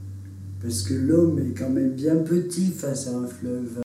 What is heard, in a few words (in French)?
homme petit face au fleuve